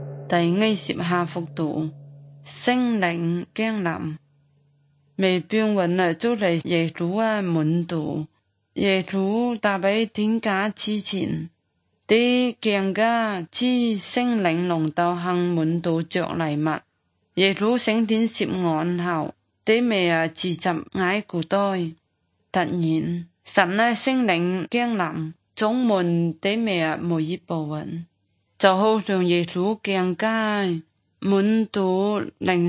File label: good news zhuang guigang guiping